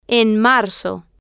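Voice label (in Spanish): en marzo, Spanish, female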